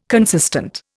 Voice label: "consistent", Indian